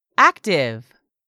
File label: "active", English; 3000 words